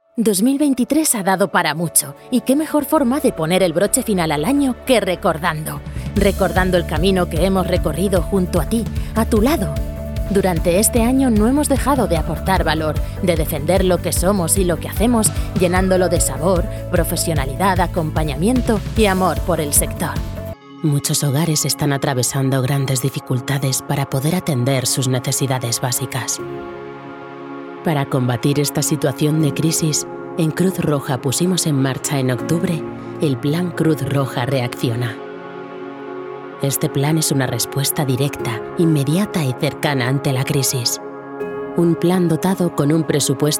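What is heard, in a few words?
Spanish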